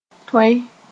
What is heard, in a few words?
"tõe", Mooré